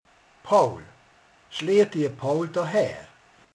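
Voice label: "cölöp", Hungarian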